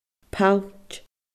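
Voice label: "pailt", Scottish Gaelic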